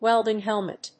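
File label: "Welding helmet", English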